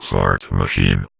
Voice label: Vocoder Words; Vengeance Samples